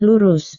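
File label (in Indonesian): "lurus", Indonesian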